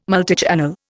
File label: "multichannel", Indian